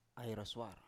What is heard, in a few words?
"ai roswar", Biak